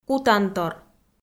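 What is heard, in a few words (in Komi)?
"кутантор", Komi-Zyrian, female; kpv audio dict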